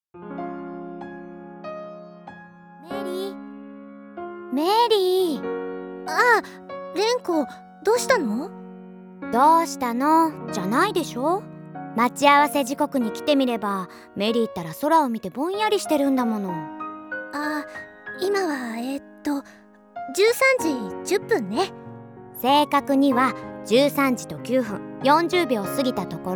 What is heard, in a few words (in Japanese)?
ボイスドラマ; 想望“夏の南风”